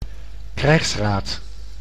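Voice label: "krijgsraad", Dutch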